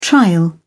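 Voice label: "trial", British English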